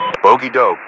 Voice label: Radio player Awacs Bogey Dope